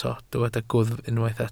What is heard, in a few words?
"gwddf", Welsh